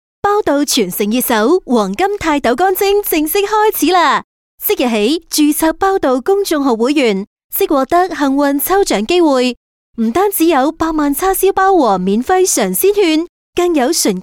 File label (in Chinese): 粤女; 包道黄金泰斗干蒸